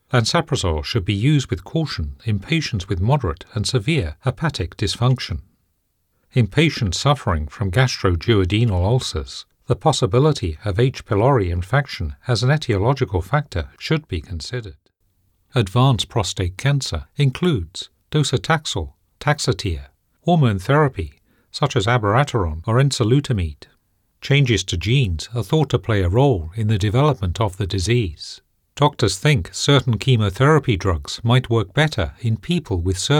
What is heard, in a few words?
Pharms, voiceovers